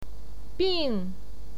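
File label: "bing", Mandarin Chinese, fourth tone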